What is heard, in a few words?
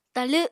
"talw", Apatani